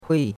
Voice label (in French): "hui4", chinois; Cours de Chinois